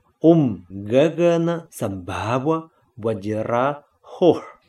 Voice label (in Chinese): 大虛空藏菩薩真言; 虛空藏菩薩 Ākāśagarbha bodhisattva mahāsattva; 又名：大虛空庫明真言，虛空藏大明妃真言教念